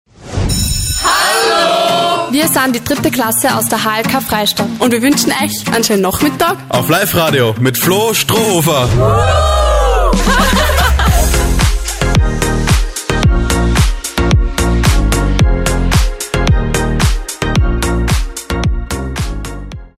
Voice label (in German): Radiojingle mit Schueler; Liferadio